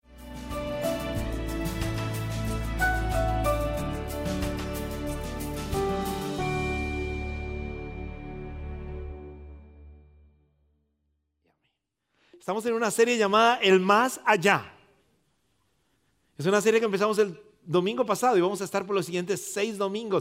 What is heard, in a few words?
Spanish Sermon